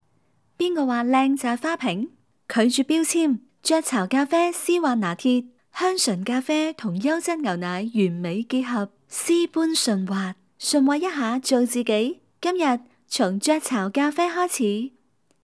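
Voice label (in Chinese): 女粤16 港式粤语广式粤语 娓娓道来 积极向上 时尚活力 亲切甜美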